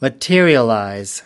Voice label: "materialize", us